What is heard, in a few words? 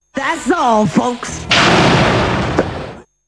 THAT'S ALL FOLKS THUNDER JINGLE